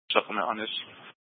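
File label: Sheriff Dispatch, scanner audio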